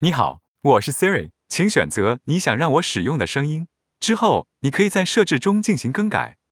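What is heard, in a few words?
Chinese, China; iOS 26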